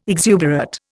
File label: "exuberate", Indian